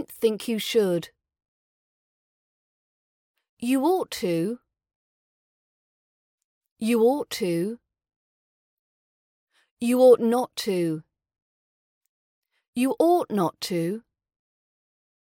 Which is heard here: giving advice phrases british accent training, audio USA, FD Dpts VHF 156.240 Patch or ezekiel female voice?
giving advice phrases british accent training